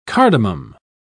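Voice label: "cardamom", British English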